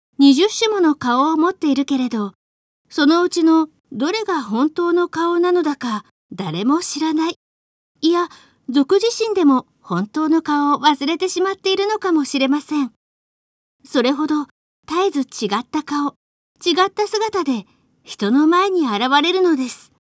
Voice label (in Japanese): girl; 怪人二十面相; Japanese